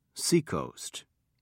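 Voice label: "seacoast", English, British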